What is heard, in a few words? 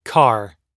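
"car", American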